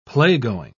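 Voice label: "playgoing", British English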